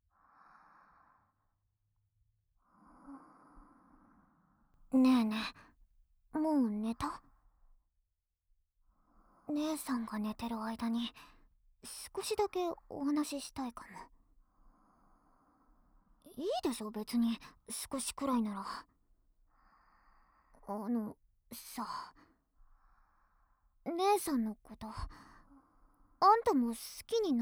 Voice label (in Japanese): ささやき(Voice); 女苑と姉妹ですやすや